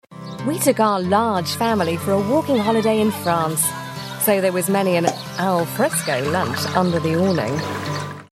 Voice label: uk info soft, english uk female

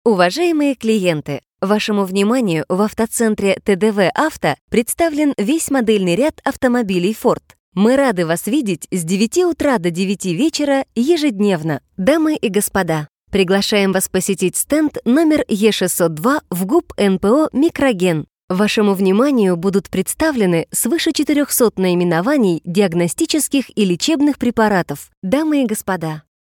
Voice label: ru informative

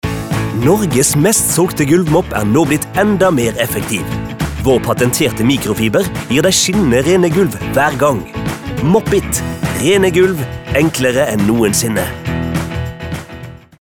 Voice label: Norwegian upbeat, male

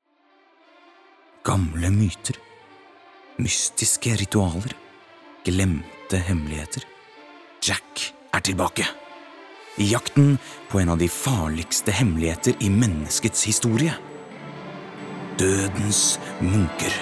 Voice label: no movie trailer

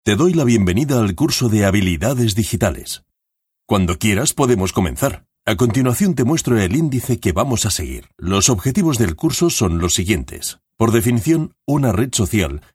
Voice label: es elearning

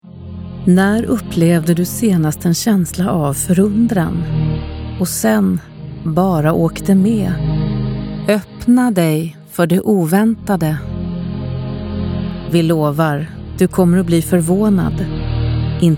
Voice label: se soft, kvinne, svensk

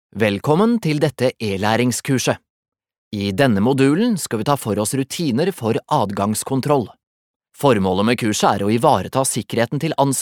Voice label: no elearning

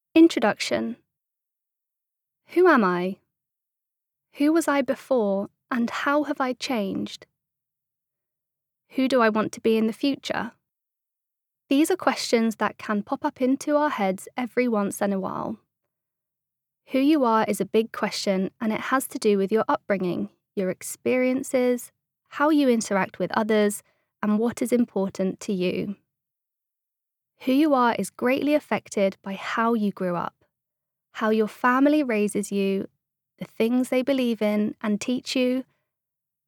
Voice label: uk fagbok, english-uk, female

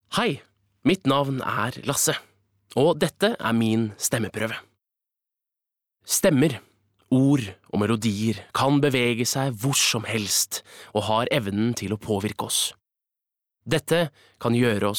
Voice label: no intro, norwegian, male

